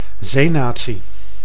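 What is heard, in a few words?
"zeenatie", Dutch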